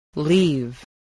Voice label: "leave", English